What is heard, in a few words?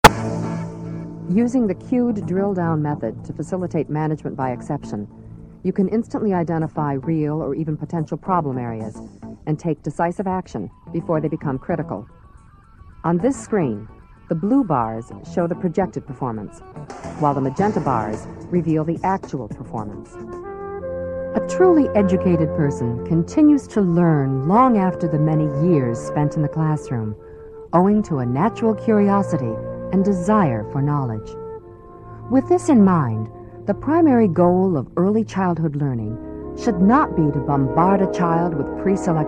Narration